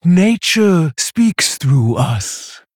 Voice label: Female Tauren